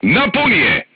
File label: voice message load, crew tanks serbian